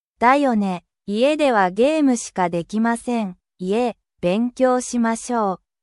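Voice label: Japanese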